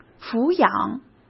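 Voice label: "抚养", Mandarin Chinese; hsk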